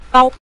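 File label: "dao", Mandarin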